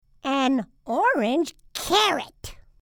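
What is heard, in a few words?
"orange carrot", English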